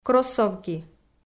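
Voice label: "кроссовки", Komi, female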